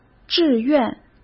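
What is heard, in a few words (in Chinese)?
"志愿" Mandarin Chinese; chinese-flashcards; hsk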